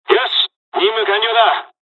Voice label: dialog, dialogs japanese; us midway; War Thunder

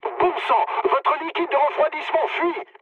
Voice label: damaged water mood med, speech fr; War Thunder

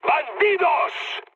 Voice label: enemy detected, mood high, speech; War Thunder

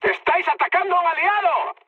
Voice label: player friendly fire, mood high, speech

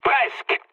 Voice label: bomb failure mood med, speech fr; War Thunder

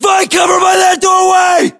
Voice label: order cover doorway, battlechatter us

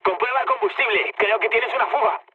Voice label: tank damaged, mood low, speech; War Thunder

